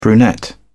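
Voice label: "brunette", English, British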